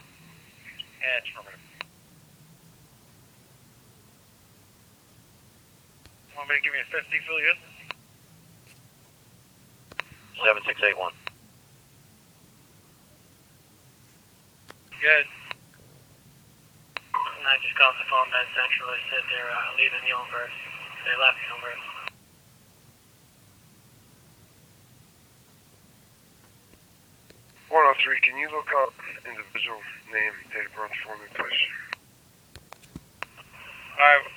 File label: ProVoice Decoded; This decoded voice clip is an updated sample from the Middlesex County EDACS system